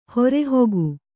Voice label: "ಹೊರೆಹೊಗು", Kannada

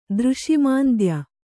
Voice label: "ದೃಷಿಮಾಂದ್ಯ", Kannada

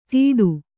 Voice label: "ದೀಡು", Kannada

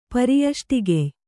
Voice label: "ಪರ್ಯಷ್ಟಿಗೆಯ್", kannada

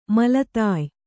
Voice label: "ಮೇಲ್ದಾಯ", Kannada

